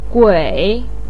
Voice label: "gui3", Chinese pinyin